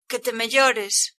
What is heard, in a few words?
get well soon, galician